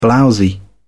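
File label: "blowsy", British English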